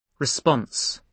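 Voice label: "response", British English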